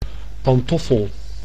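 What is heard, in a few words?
"pantoffel", Dutch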